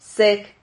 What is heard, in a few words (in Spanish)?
"seg", Silabas